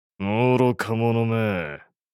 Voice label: You fools anime villain character, japanese voice over